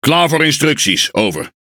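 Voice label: NL Speech Pilots Torpedo Atpos, Dutch; World Of Warships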